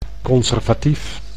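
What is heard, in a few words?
"conservatief", Dutch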